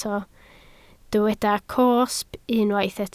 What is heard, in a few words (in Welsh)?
"cosb", Welsh